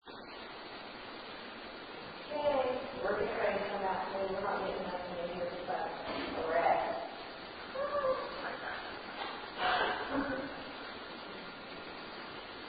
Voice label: museum noise girl saying HI